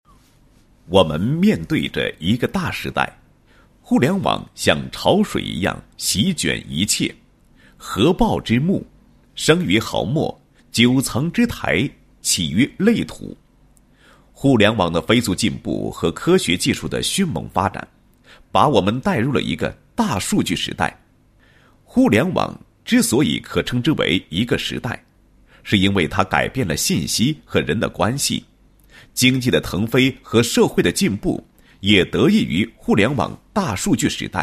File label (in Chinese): 男 解说词; 电子商务宣传片解说词